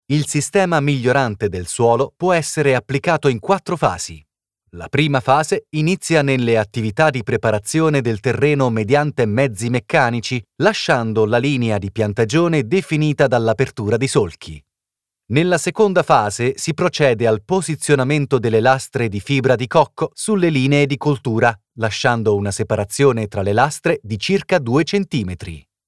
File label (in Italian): Italian e-learning